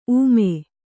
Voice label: "ಊಮೆ", Kannada